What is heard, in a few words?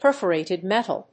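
"PERFORATED METAL", English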